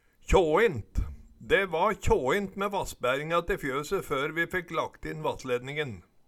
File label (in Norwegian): kjaint, Numedalsmål